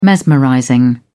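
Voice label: "mesmerizing", British English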